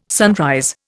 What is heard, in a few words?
"sunrise", Indian